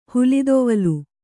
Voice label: "ಹುಲಿದೊವಲು", Kannada

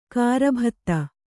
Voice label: "ಗರ್ಬಿತ", kannada

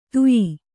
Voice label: "ಧಾಯ್ಯೆ", Kannada

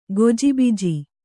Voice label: "ಗಜಬಜೆ", Kannada

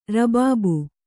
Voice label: "ರಬಾಬು", Kannada

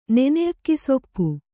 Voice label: "ನೆನೆಅಕ್ಕಿಸೊಪ್ಪು", Kannada

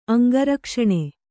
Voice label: "ಅಂಗರಕ್ಷಣೆ", Kannada

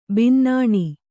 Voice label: "ಬಿನ್ನಾಣ", kannada

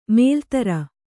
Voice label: "ಮೊಲೆತೊರೆ", Kannada

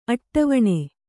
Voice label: "ಅಟ್ಟವಣೆ", Kannada

